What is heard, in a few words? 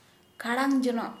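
Santali female hard broom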